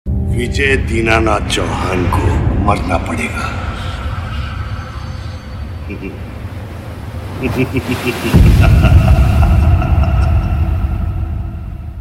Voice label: Dialogue, Ringtones; Agneepath